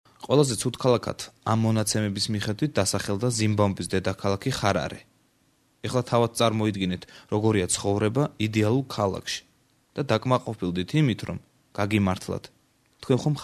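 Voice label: georgian male narration; voice demos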